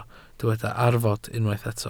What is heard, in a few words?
"arfod", Welsh